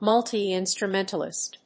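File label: "Multi-instrumentalist", English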